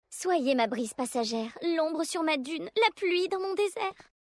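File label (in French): French Female Flirt; Vulperin; PluieDesert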